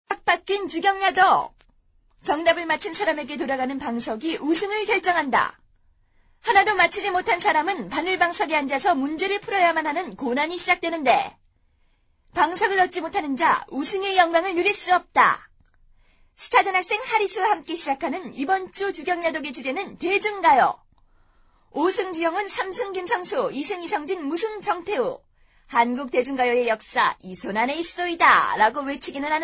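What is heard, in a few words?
Korean female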